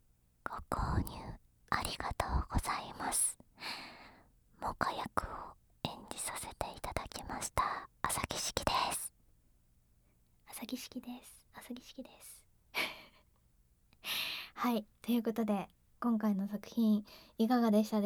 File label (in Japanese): フリートーク, ASMR; SEなし; 【KU100】『センパイとのえっち最高っす♪』生意気うざかわ後輩と両想いアオハルプレイ♪